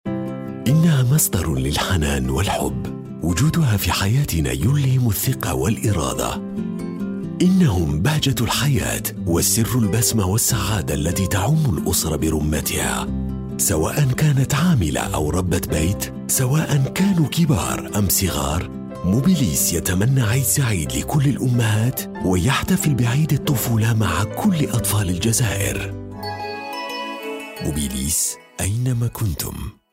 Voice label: arabic commercial, charismatic

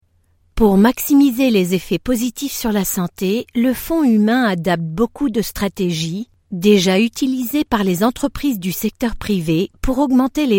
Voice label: french ivr

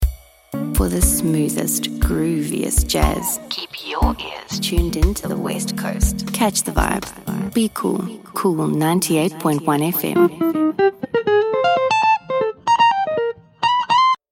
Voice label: english south african commercial, sultry

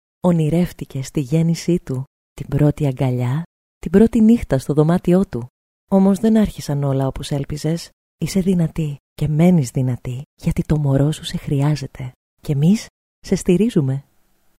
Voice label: greek narration

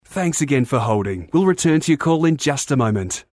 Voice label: english australian ivr

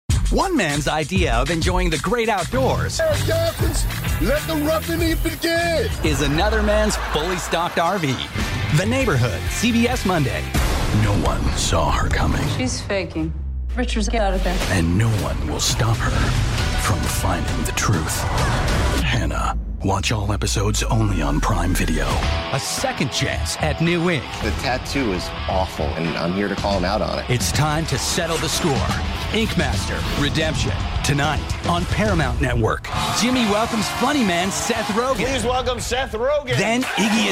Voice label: english american promos

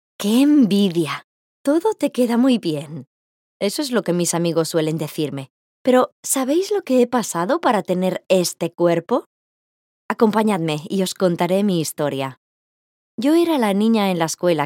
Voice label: spanish promos

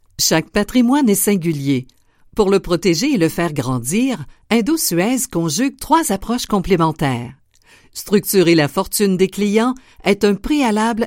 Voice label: french canadian narration

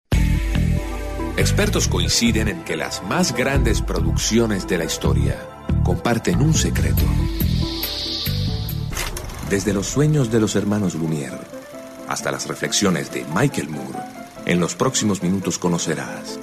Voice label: spanish latin american narration

